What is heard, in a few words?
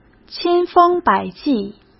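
"千方百计", Mandarin; chinese-flashcards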